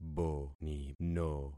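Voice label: "bonino", concatenated syllables; Affixing experiment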